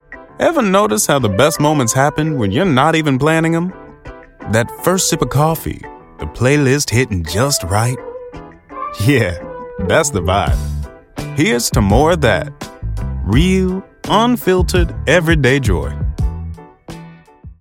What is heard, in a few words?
Every day moments, Casual, AAVE, Playful Warm Low; LANDR